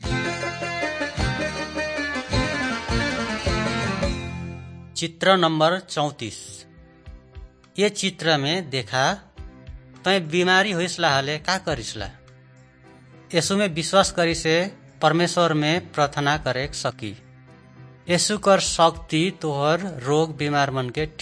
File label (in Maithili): good news maithili, nt drama; maithili kisan; wycliffe bible translators inc